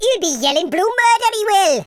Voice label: first blood, speech, Welsh